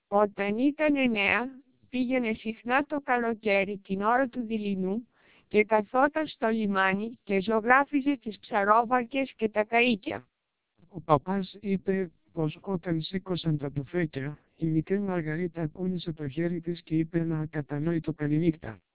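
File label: Greek speech, TWELP 700 bps vocoder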